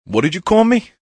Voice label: What Did You Call Me, Attitude, Male

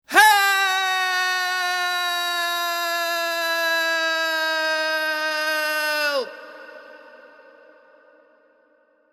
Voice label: The word ‘Help’ shouted in sustained male voice; Yelling; Sound Effects:Voice

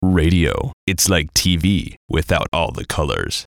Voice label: VOICE COMICAL; Radio Its Like Tv; Funny Sound; Production Element, Imaging Element, Accent, Transition; Blastwave

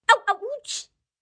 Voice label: Pain Ow Owooch, Ow, Ouch, 1 child; VoiceBox

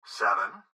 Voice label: Gps Voice, Male

